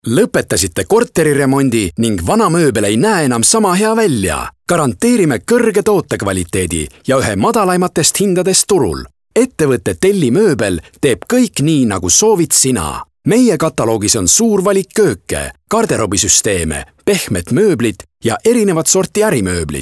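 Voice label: Estonian male Active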